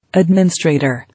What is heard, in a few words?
"Adminstrator", English